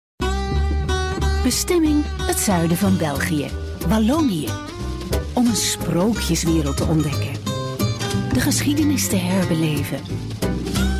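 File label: Dutch, female, commercial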